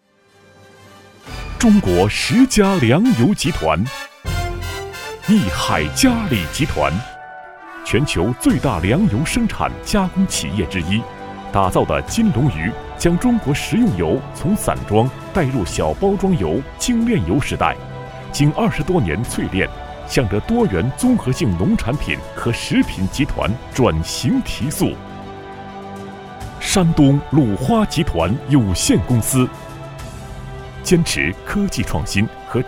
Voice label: 男22 颁奖（大气激情）; 中国粮油颁奖

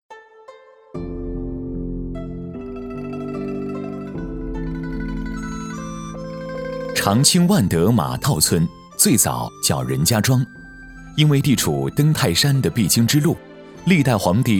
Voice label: 男 马套村（自然叙述）

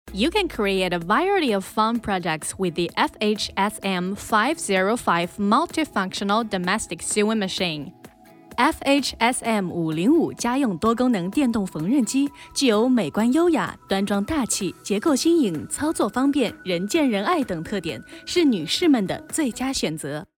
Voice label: 女 英-中-宣传 广告 缝纫机

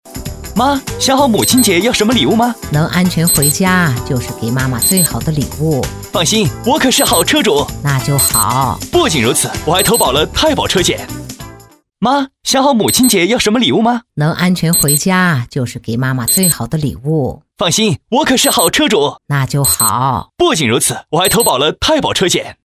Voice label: 女 男女混录车险

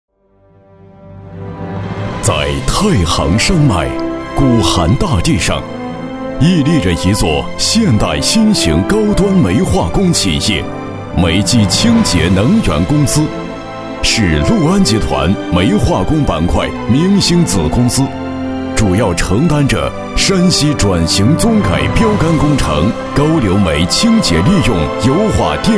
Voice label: 男 煤

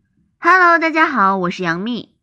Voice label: 女; 模仿杨幂

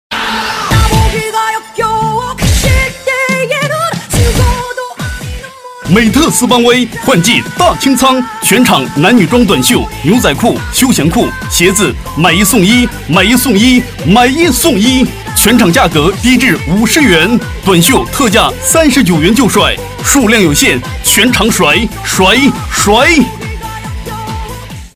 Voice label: 男 促销; 美特斯邦威

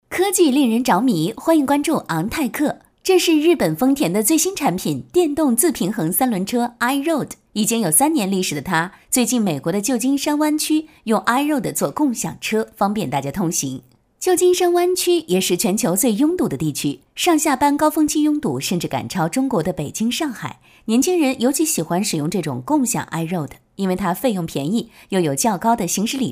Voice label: 女 科技类解说（轻松）

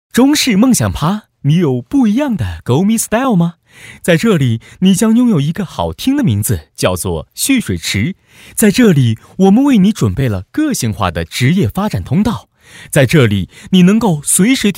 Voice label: 男 大学招聘会; 年轻

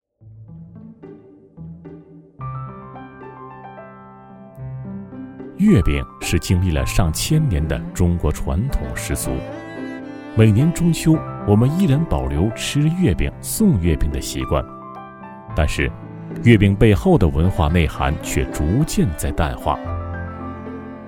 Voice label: 男; 月饼（舌尖风）; 分类：人文地理

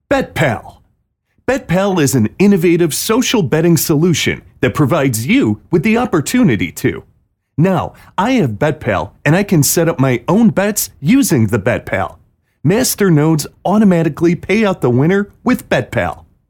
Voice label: 外籍男 儿童床用铃广告

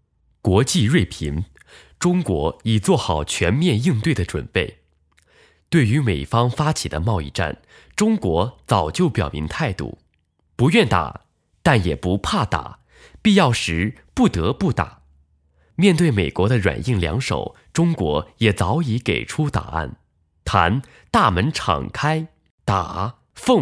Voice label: 男 国际锐评

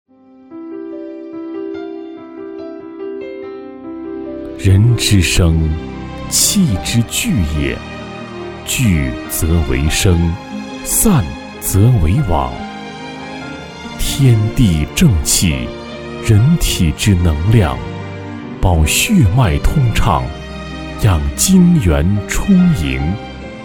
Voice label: 男 感情; 大竹华润燃气公司

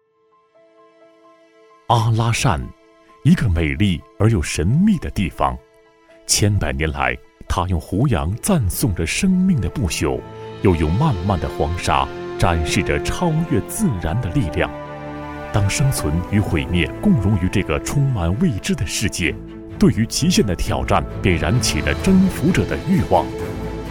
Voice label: 男 阿拉善（磁性讲述感）

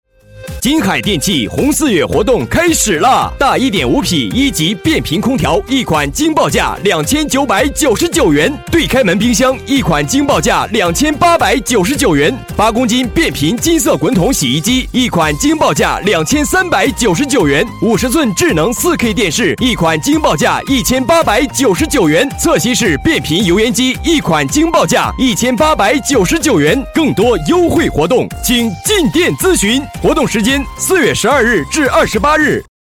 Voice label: 男 促销 电器; 实惠大气; 金海电器

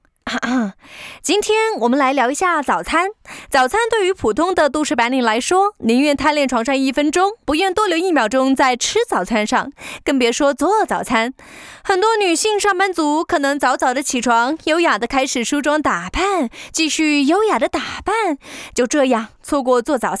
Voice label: 女 早餐

